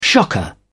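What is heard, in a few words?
"shocker", British English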